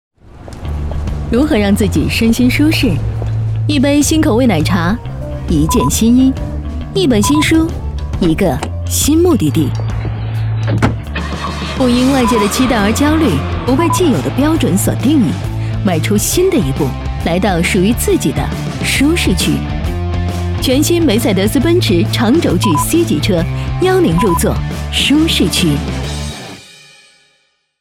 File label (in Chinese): 女 广告 时尚年轻; 梅赛德斯奔驰长轴距C级车